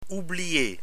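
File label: "oublier", French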